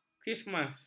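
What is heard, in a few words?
"krismas", Malay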